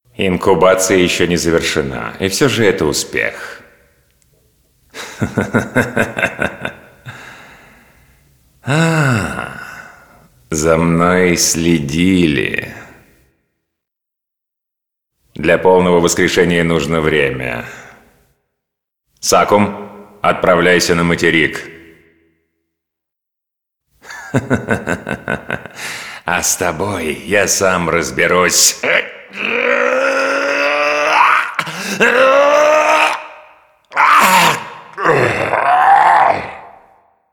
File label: cinematic voice, ru; lineage2